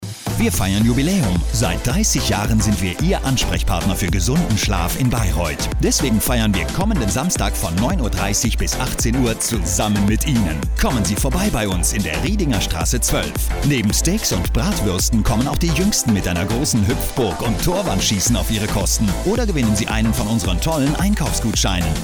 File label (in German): radiospot; mainwelle